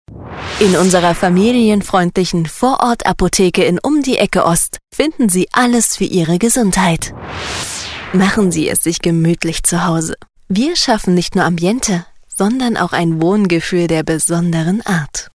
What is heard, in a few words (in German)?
vertrauensvoll heimelig